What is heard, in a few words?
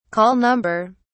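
"call number", British English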